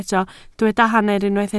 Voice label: "hanner", Welsh